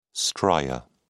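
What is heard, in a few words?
"stria", English, British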